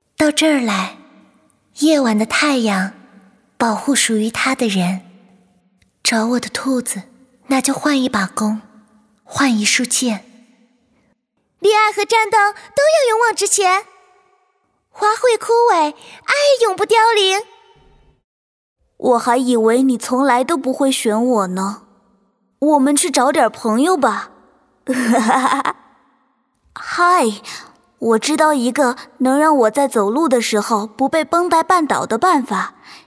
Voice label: 游戏样音女喵八岁

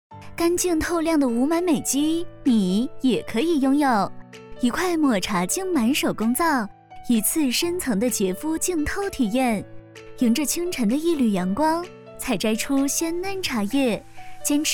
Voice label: 护肤品广告温柔类

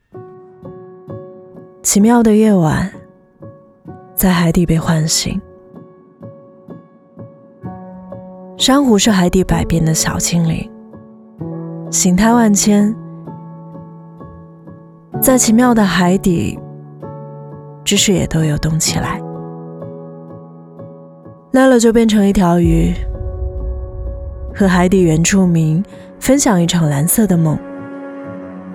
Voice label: 宣传片文旅自然素人云南; 文旅自然素人极边盈江

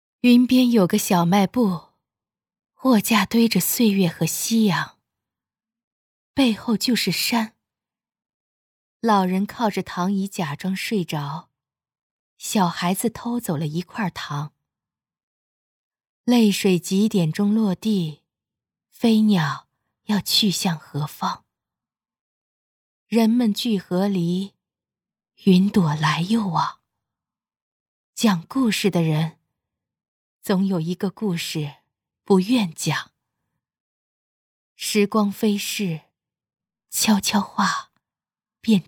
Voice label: 念白

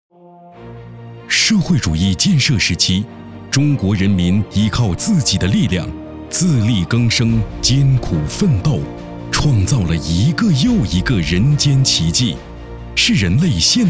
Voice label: 正能量昂扬向上感

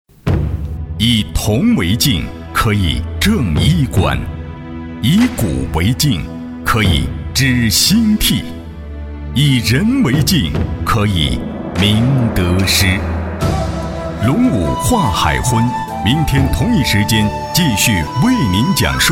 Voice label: 广播 宣传

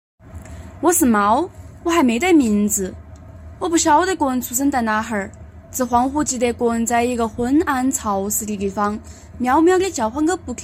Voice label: 方言猫

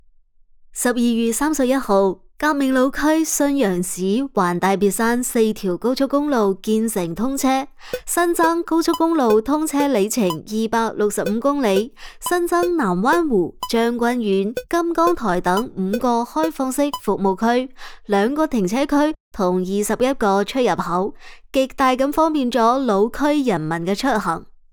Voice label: 粤语短新闻播报

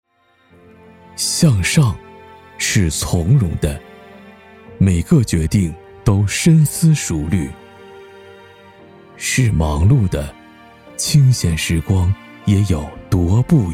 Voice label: 公益社会生活篇

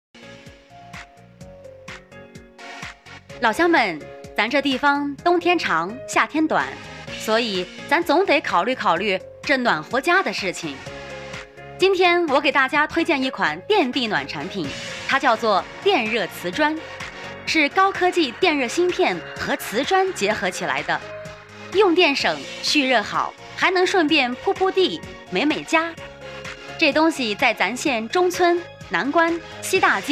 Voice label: 轻松 活泼 电暖气 广告

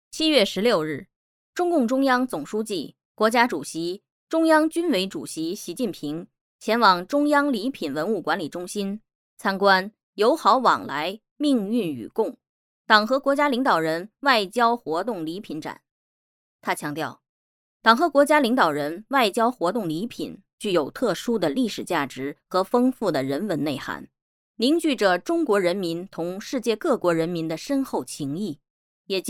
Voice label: 新闻 课件

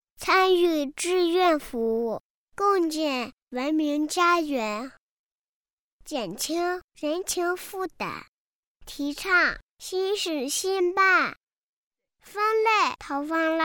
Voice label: 女童

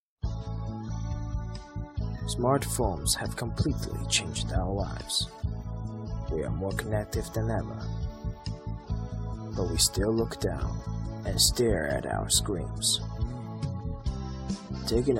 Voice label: 公益广告; sony

